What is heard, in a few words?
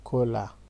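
"kola", Nigerian Pidgin, male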